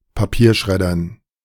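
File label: "Papierschreddern", German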